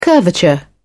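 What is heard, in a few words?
"curvature", British English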